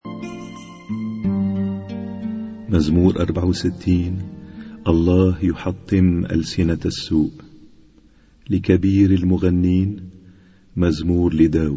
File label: Arabic Psalm Zaboor